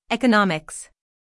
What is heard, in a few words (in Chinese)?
美籍外師念 economics